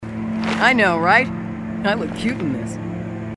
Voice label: female marines; h3dialogue